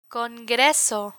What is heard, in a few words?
"congreso", Spanish; medical medicine vocabulary; learn spanish